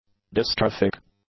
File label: "dystrophic", English; adjectives